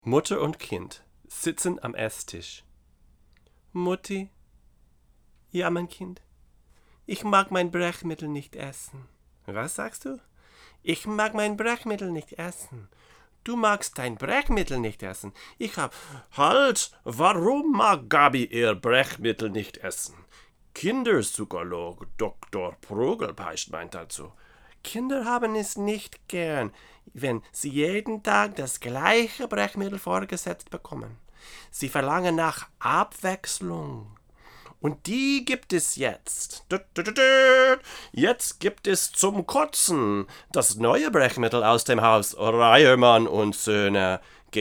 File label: VO, Neumann KM254